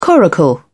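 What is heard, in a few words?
"coracle", British English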